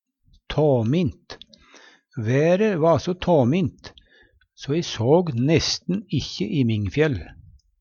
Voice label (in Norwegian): tåmin, Numedalsmål; Vêr og føre; Adjektiv; Være va so tåmint so e såg nesten ikkje Imingfjell.